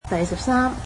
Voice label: "thirteenth", Cantonese

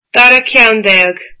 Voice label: twelfth, irish

